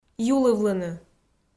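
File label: "юлывлыны", Komi, female; kpv audio dict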